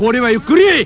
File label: pain